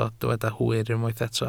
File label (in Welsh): "hwyr", Welsh